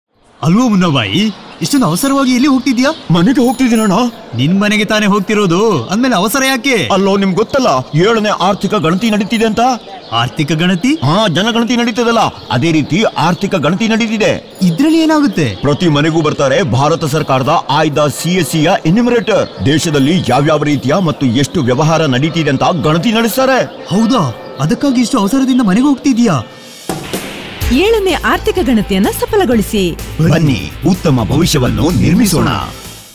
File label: Kannada Radio Spot; economic census; seventh economic census